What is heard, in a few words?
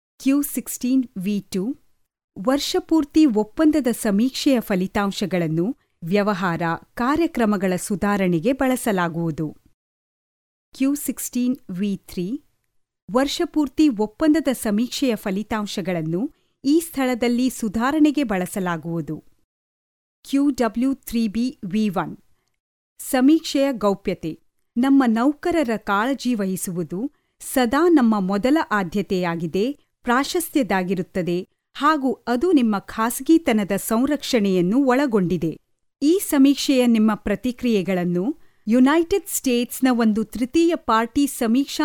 kannada female